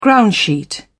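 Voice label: "groundsheet", British English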